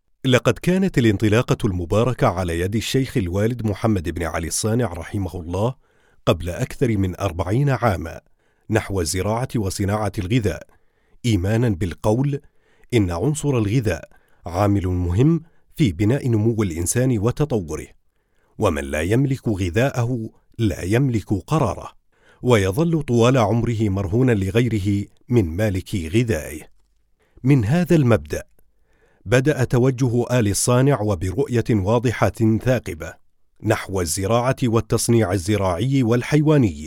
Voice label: Arabic